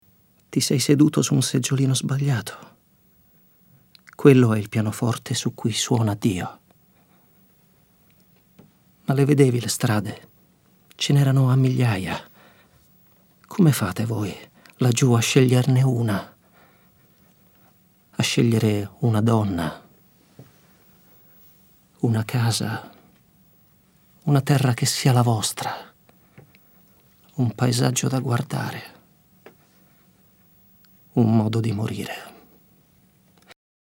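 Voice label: plain narration, voiceover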